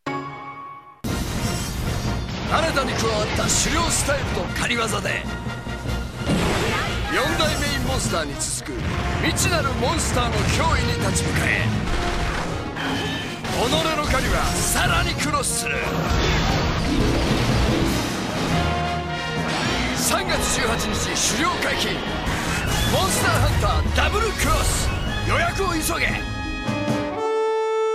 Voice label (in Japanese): ティザー, ナレーションサンプル; モンスターハンターダブルクロス